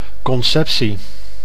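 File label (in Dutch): "conceptie", Dutch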